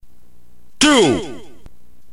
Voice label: narrator